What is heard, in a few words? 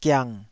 "giang3", Taiwanese syllable